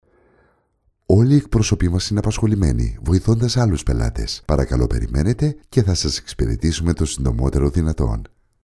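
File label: greek interactive voice response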